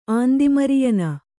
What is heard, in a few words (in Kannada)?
"ಆಂದಿಮರಿಯನ", Kannada